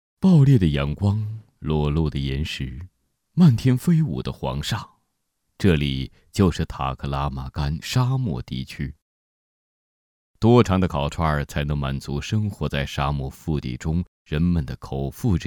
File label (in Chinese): 纪录片; 央视纪录片; 味道